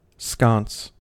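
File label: "sconce", US English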